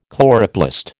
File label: "chloroplast", American